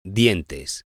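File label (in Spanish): "dientes", Spanish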